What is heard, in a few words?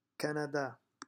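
"Canada" French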